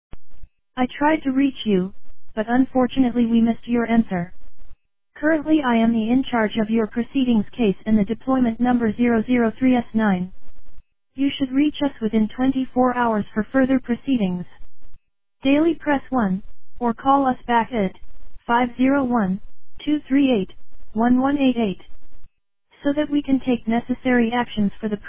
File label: Voicemail